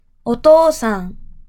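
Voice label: "お父さん", Japanese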